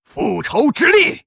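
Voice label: Hero paladin yes attack, Chinese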